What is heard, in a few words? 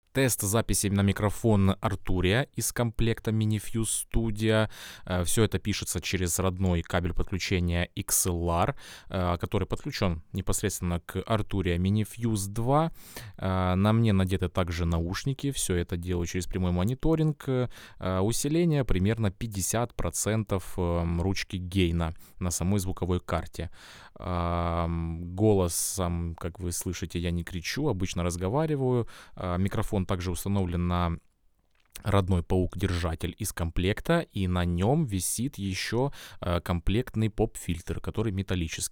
mic test golos; Arturia CM-1 - Тест Голос